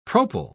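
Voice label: "propyl", British English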